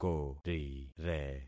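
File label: "korire", concatenated syllables; Affixing experiment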